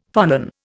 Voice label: "phonon", Indian; Dictionary